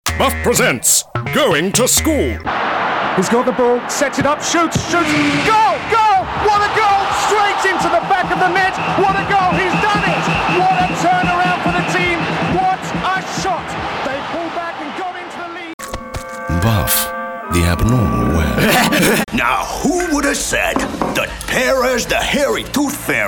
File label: character, English UK, male